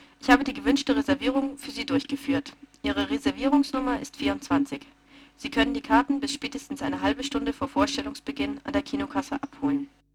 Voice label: Sine fast, Stimme; SmartKom; Prototypen Stimme